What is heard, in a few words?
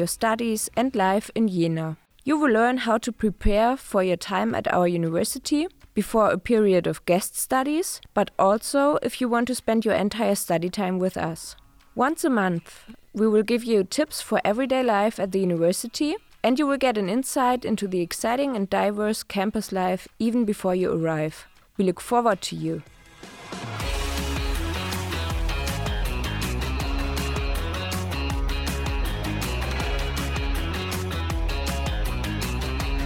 podcast trailer